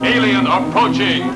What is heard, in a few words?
Alien Approaching, robot; robot b9